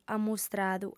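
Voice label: "ammustradu", lingua sarda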